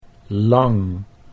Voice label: "lang", Dutch

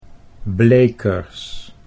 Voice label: "blekers", Dutch

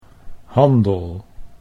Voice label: "handel", Dutch

